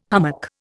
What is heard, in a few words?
"hummock", Indian